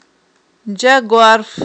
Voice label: "джагварф", Aghul